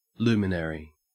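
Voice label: "luminary", Australian English; pronunciations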